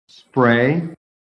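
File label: "spray", English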